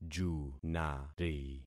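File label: "junari", concatenated syllables; Affixing experiment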